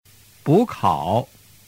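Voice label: "bukao", Chinese; doi dau giong; giao trinh 301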